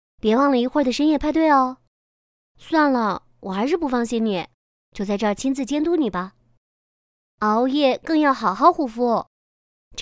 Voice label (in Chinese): 女 角色模仿 邻家妹妹